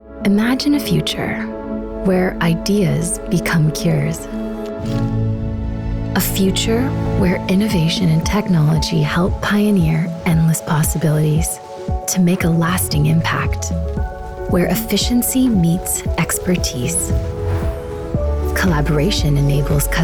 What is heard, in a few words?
corporate